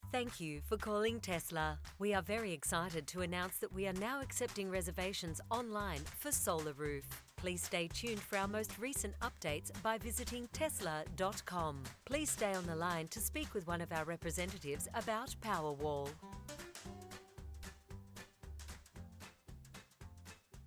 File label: Powerwall IVR; Tesla